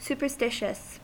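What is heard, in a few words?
"superstitious", US English; pronunciations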